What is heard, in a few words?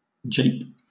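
"jape", British English; pronunciations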